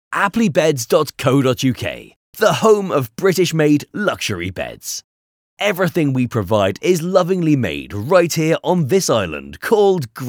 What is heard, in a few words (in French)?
voix off homme uk; Studio Com'un Son